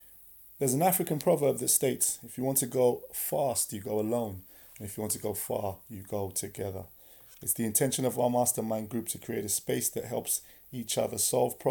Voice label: voicenote